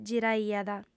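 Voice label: "giraiat", Sardinian, female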